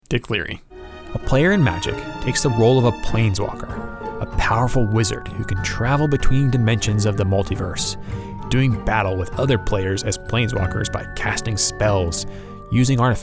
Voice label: Narration